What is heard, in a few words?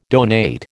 "donate", American